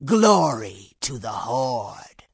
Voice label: Orc Female Standard NPC Greeting